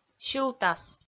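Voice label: "сьылтас", Komi, female; kpv; audio dict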